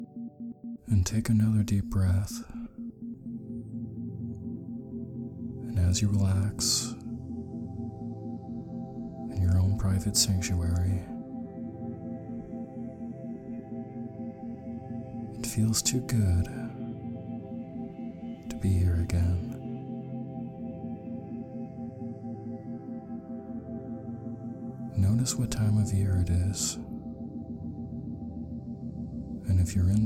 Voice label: Clearing Negativity With Inner Guide Isochronic Tones